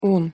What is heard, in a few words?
"un", Turkish